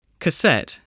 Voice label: "cassette", spelling